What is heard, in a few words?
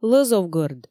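"лӧзовгӧрд", Komi, female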